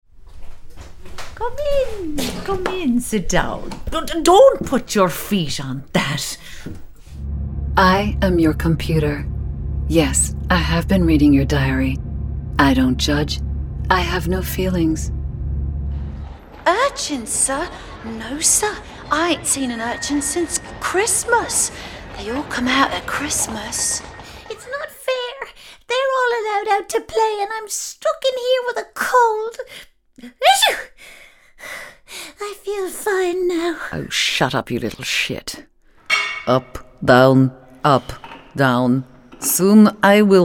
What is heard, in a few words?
animation